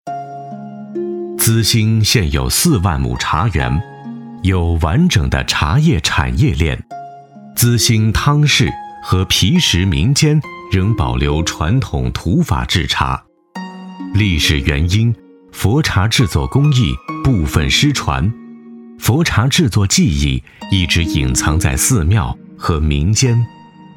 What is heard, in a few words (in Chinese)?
专题 产品 磁性, 男国; 资兴茶工艺